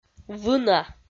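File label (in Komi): "вына", Komi, female; audio dict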